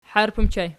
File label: "harp", archi